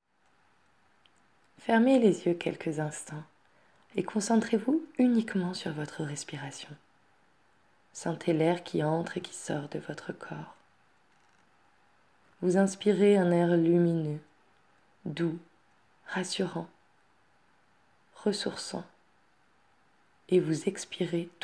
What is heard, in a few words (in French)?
meditation moment présent; les supers parents